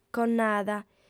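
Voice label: "connada", female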